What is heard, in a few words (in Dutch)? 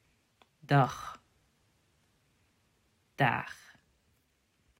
"dag" "daag", vergelijkende woorden